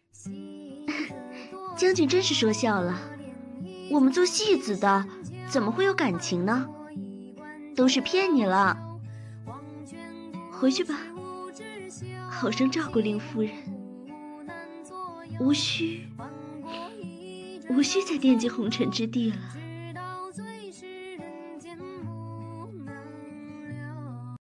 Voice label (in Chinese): 戏子古风